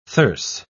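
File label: "thyrse", British English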